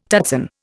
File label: "tutsan", Indian